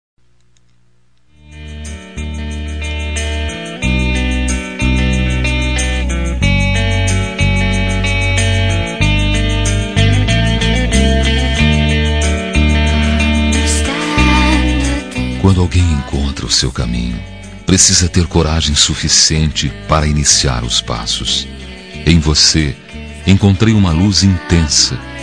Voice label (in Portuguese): CONQUISTA MASC; Telemensagens; Conquistas Cantadas paqueras; Telemensagens 2017